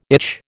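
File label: "itch", American English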